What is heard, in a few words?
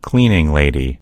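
"cleaning lady", American English; gcompris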